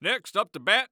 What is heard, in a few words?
Sports Human Voice Male Baseball Announcer; Next Up To Bat; AdobeCC